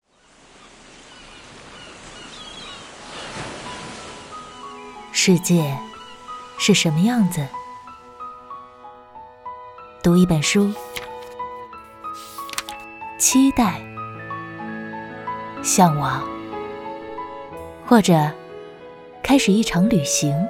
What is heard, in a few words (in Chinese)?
坚定 温暖 旁白